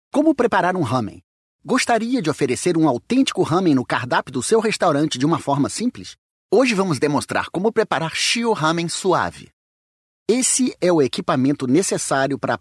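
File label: Brazilian Portuguese, male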